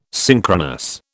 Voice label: "synchronous", British